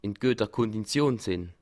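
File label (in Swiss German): "kondition", Bas Rhin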